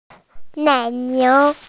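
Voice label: "dairy cow", Mandarin, hearing-impaired; nai3niu2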